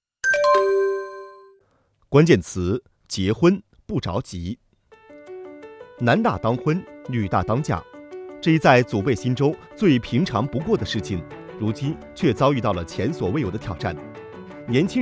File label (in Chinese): 新闻配音